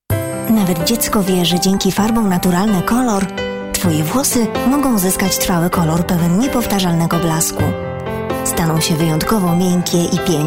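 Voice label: Polish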